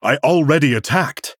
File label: Male Human ERROR I ATTACKED; Arthas